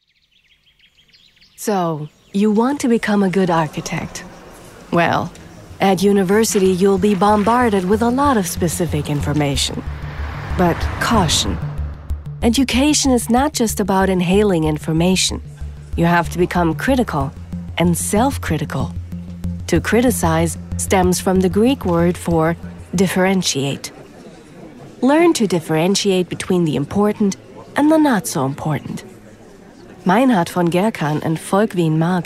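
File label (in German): US English, Kommentar Neutral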